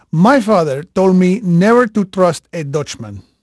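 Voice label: Portuguese male, voice; Pirates Of The Caribbean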